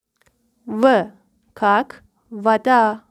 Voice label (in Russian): russian